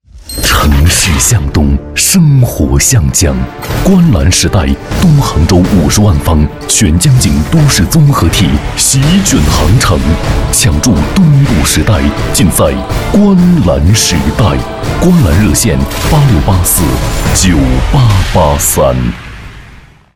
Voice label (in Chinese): 男 城市宣传片 大气磁性; 观澜时代